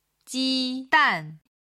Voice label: "ji1dan4", Chinese